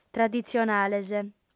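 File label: "traditzionales", Sardinian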